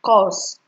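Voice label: "curse", Nigerian Pidgin, female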